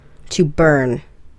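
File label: "to burn", English; verbs; shtooka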